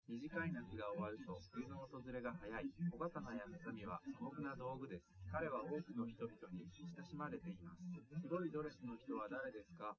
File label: male, live rec; SiSEC11; underdetermined